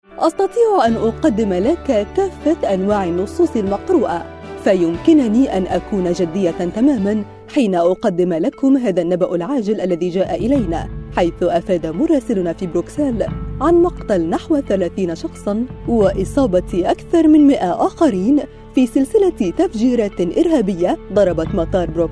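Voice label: Arabic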